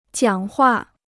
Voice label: "讲话", Chinese